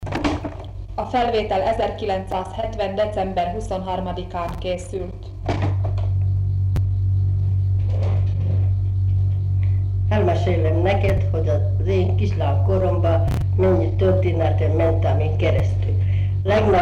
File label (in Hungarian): nyelvjárás gyűjtések